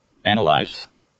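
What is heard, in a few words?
"analyze", American English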